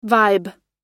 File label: "vibe", pronounce